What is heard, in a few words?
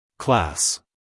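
"class", American English, male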